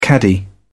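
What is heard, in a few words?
"caddy", British English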